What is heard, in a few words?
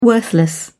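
"worthless", British English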